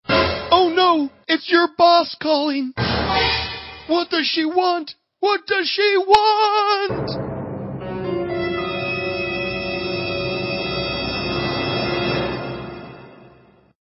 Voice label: Female Boss Calling